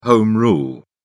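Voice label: "home rule", British English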